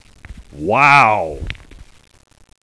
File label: "wow", exclamations